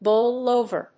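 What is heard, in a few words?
"bowl over", English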